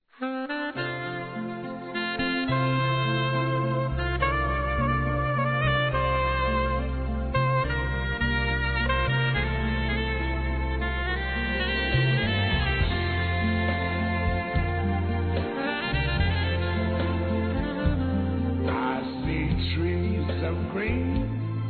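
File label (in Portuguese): DISTANTE, Masculino; Dia das Mães; Telemensagens 2017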